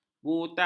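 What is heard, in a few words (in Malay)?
"butang", Malay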